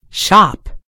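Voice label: "shop", British English; gcompris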